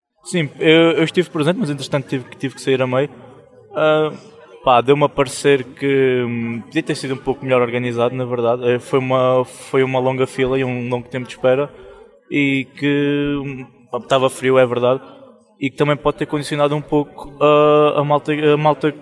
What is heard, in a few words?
Vox pop